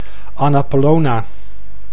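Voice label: "Anna Paulowna", Dutch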